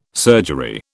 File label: "surgery", British